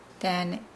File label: "daane", Nukuoro; Nukuoro Talking Dictionary